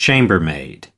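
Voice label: "chambermaid", US English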